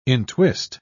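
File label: "entwist", English, British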